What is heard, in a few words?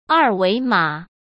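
"二维码", Mandarin; chinese flashcards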